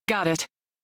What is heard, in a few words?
Crew Accept, Female; Dialog; en; Warframe; Railjack Crew; New Loka